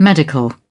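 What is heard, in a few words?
"medical", British English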